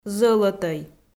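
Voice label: "зӧлӧтӧй", Komi-Zyrian, female; audio dict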